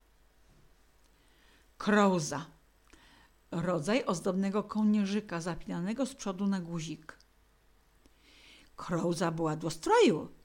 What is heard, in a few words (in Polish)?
krouza, gwarowy